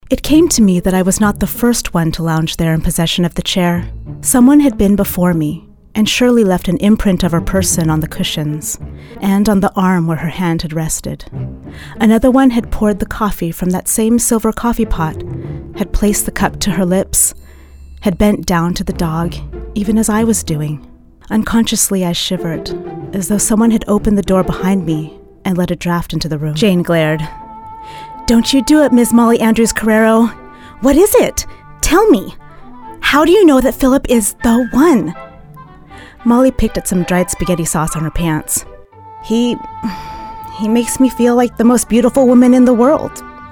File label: Audiobook